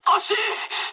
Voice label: bomb failure mood high, speech jp; War Thunder

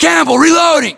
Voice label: inform reloading, battlechatter, English, US; localized english

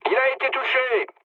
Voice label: aircraft destroyed mood low, speech fr

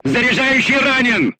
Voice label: voice message charger wounded, crew tanks russian

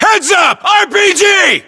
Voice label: threat rpg, US

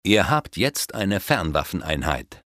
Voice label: Ranged Attack, German, Advisors, Speech; Civ5

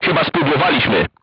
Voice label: voice message armor not pierce, crew tanks polish

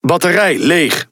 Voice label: Speech Alarms Sub Battery End, NL; World Of Warships

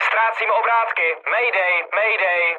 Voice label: damaged engine, speech cze, mood med; War Thunder

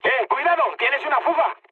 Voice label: damaged water, mood high, speech; War Thunder

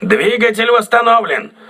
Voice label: voice message engine repaired, crew ships russian

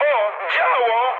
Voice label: damaged aa mood high, speech pol; War Thunder

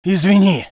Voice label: voice sov infantry

